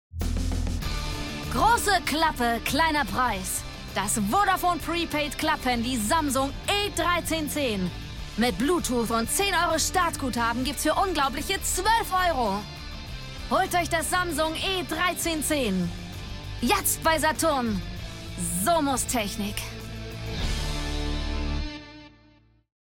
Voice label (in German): werbung